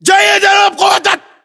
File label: order action boost, battle chatter, english